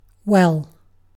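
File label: "well" English, British; pronunciations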